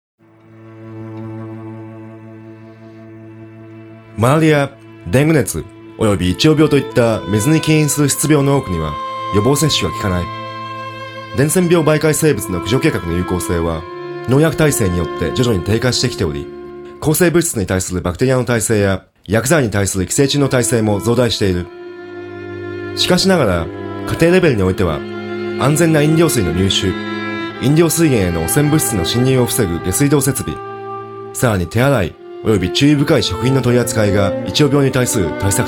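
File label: General, Japanese; GoLocalise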